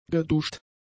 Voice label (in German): "geduscht", German; adjectives